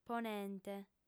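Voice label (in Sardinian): "ponente", female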